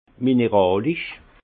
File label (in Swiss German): "mineralisch", Alsace; vin